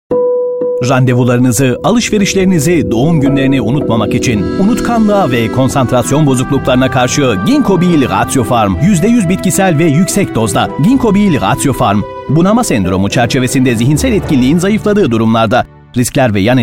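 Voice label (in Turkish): tv spot türkisch; ratiopharm; ginkobil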